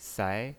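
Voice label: "sai", Mandarin Chinese pinyin voice, second tone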